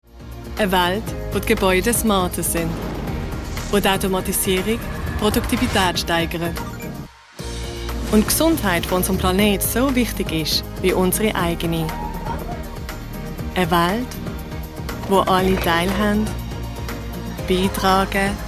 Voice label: female, Swiss German commercial compilation

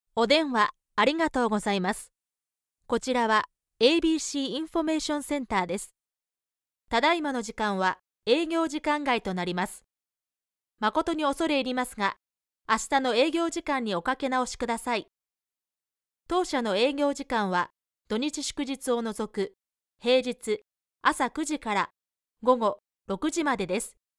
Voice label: female, Japanese IVR

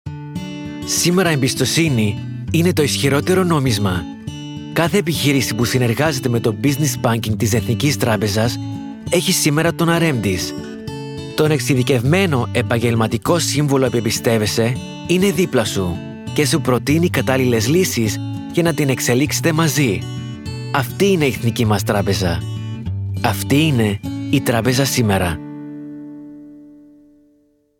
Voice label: male greek commercial; english and greek

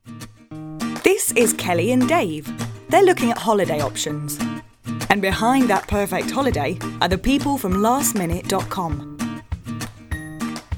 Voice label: female English commercial

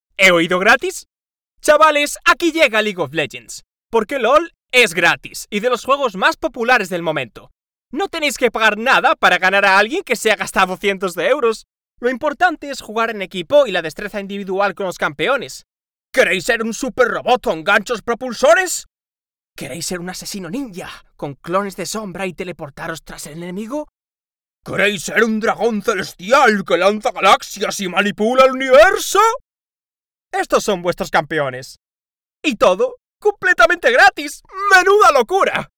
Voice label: male galician and spanish castilian commercial

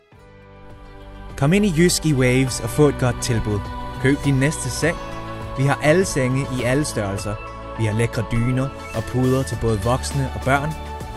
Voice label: male danish compilation

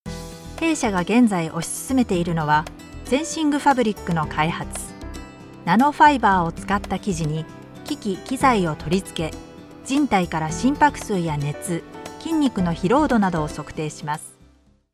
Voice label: female japanese corporate documentary compilation

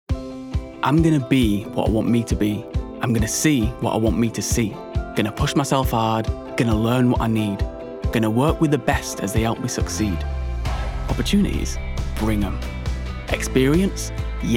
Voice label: male English Yorkshire accent commercial; english northern accents

